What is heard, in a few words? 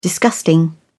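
"disgusting", British English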